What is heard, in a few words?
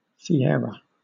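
"sierra", British English; pronunciations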